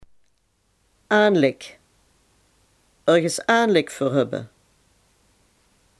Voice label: "aanleg", Limburg

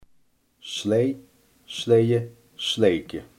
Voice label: "slee", Limburgs

